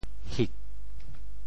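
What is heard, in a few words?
"hik4", Teochew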